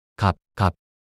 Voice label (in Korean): 값값 낱소리 남자